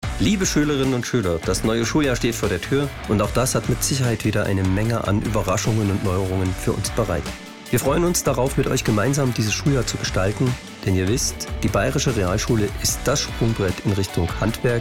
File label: Radio Spot; Radio Gong